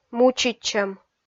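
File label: "мучитчӧм", Komi, female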